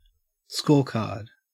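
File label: "scorecard", Australian English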